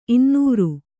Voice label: "ಇನ್ನೂರು", Kannada

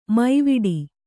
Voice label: "ಮೈವಡೆ", Kannada

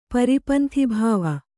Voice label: "ಪರಿಪಂಥಿಭಾವ", kannada

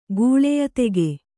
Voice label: "ಗೂಳೆಯದೆಗೆ", kannada

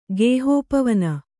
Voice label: "ಗೇಹೋಪವನ", Kannada

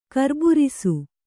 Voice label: "ಕರ್ಬುರಿಸು", Kannada

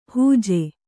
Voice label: "ಹೂಜೆ", Kannada

